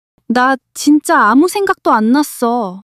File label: fluster